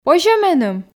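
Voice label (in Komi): позьӧ меным, Komi, female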